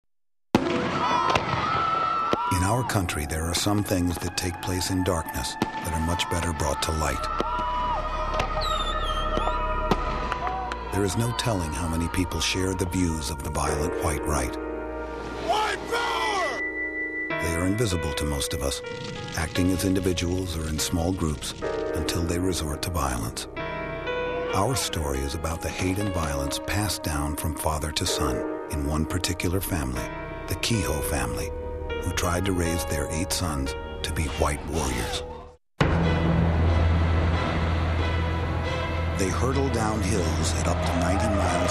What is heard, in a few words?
narration men